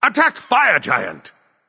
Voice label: vox attack; smite; ra; voicepack

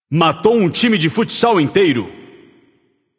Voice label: alert notify kill, portuguese; caster

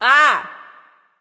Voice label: spooky; cupid

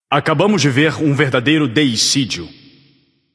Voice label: alert notify kill all, portuguese; caster

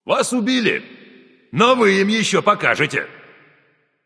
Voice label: slain you, russian; caster

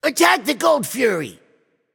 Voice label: vox attack; smite; raijin

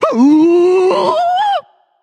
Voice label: battlecry; smite; tyr

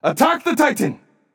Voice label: vox attack; smite; raijin

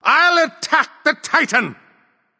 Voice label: vox self attack; smite; ra; voicepack

